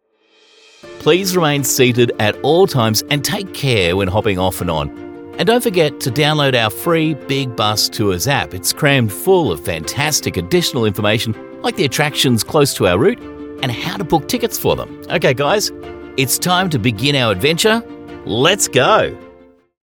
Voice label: english australian audio guides